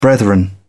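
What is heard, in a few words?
"brethren", British English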